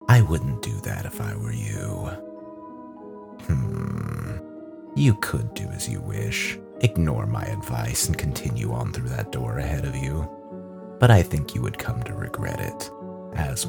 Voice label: Character

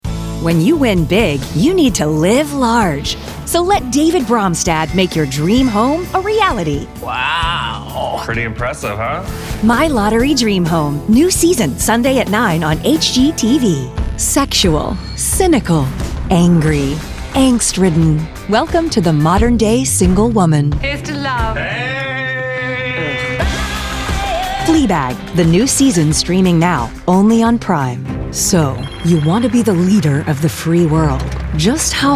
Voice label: Promo

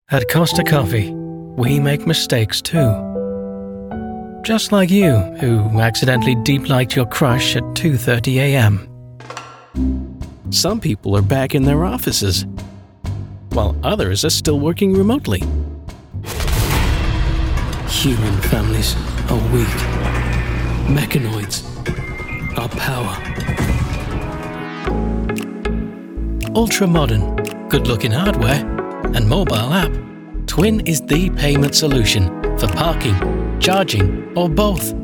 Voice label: Commercial Demo

